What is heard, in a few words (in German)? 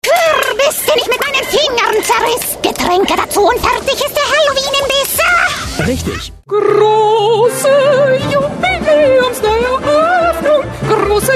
werb comic